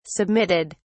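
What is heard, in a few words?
"submitted", British English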